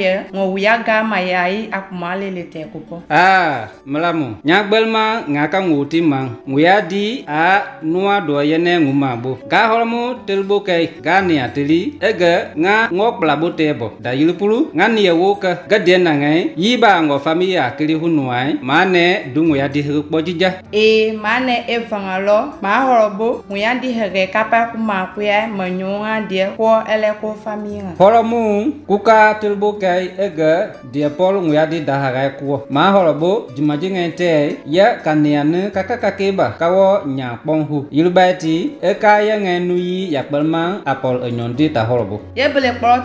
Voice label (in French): spot Ebola vaccination guinee guerze